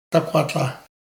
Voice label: Dakwatlah, Dane-ẕaa; Rêveurs